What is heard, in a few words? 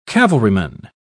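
"cavalryman", British English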